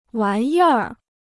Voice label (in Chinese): "玩意儿", Chinese